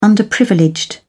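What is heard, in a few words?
"underprivileged", British English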